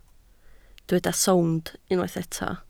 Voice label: "sownd", Welsh